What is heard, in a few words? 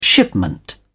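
"shipment", British English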